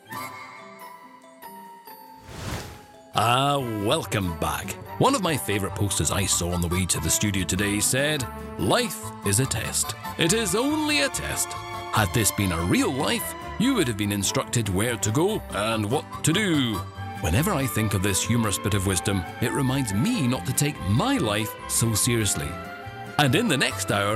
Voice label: english scottish tv shows

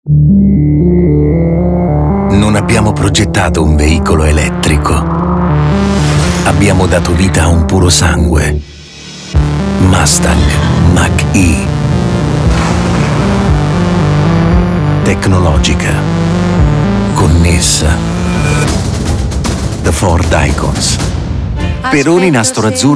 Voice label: italian commercial; professional Italian voice over artist

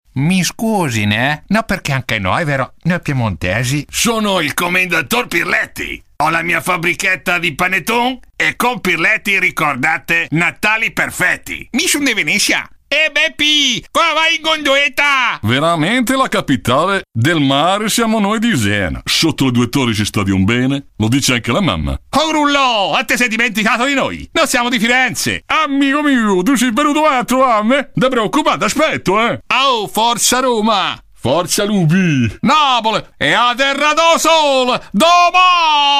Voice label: italian

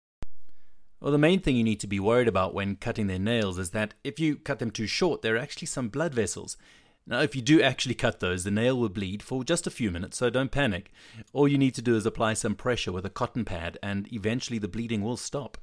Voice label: english south african e-learning

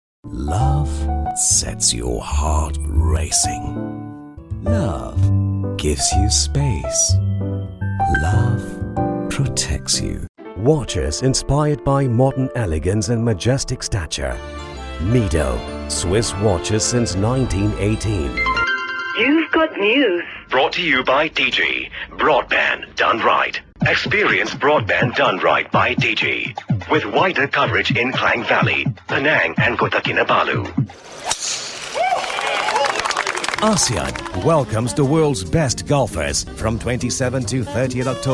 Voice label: malay

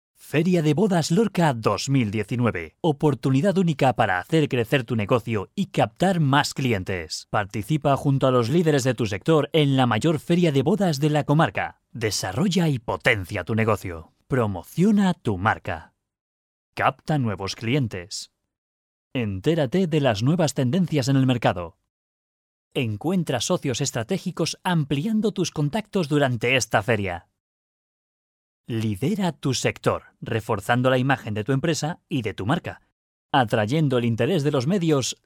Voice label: spanish promos

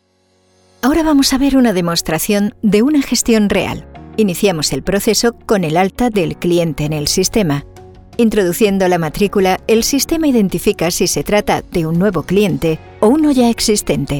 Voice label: spanish explainer videos

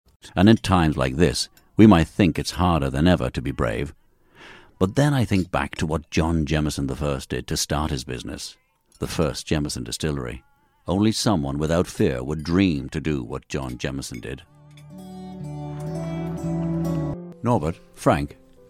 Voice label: english irish podcasts

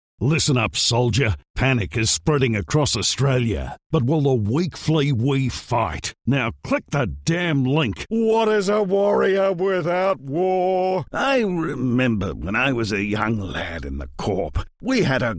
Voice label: english australian video games

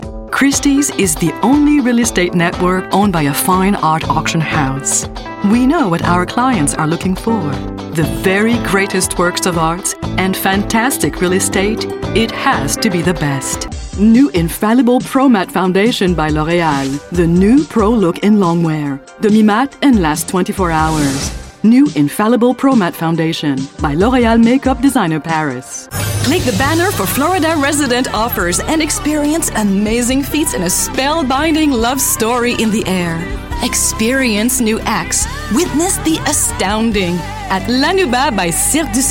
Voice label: french canadian; professional voice over artist, vocalist and director

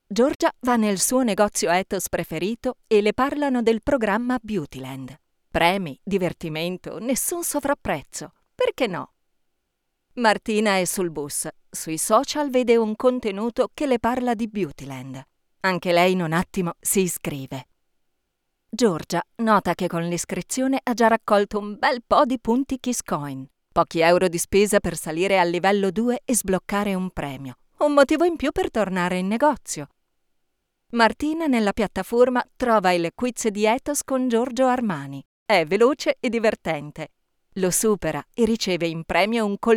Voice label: italian narration

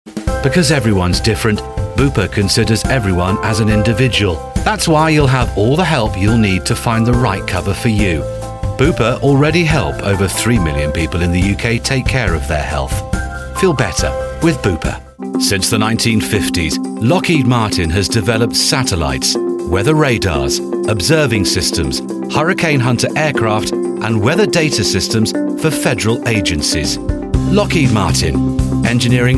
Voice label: english british commercial

